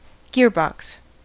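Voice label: "gearbox", US English; pronunciations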